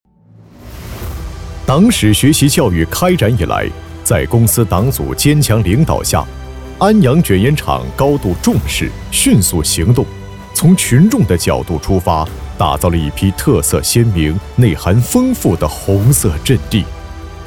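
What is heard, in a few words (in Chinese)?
党史教育在身边, 男配音 青年 普通话 大气专题宣传党建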